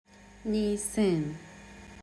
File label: "nisan" arabic pronunciation